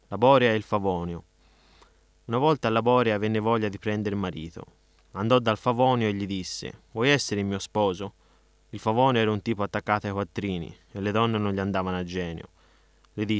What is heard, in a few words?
tale